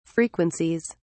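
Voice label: "frequencies", British English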